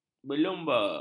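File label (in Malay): "berlumba", Malay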